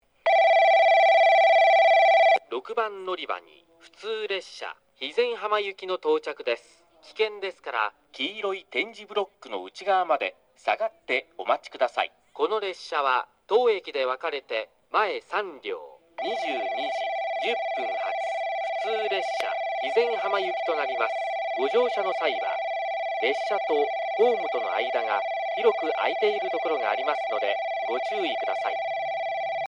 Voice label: tosu local hizen hama; 6番のりば接近放送（普通 肥前浜行き）; 鳥栖